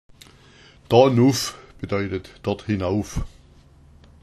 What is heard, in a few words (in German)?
"nuff", schwaebisch